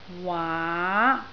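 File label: "waa2" Cantonese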